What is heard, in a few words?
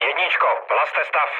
chat, speech Czech; War Thunder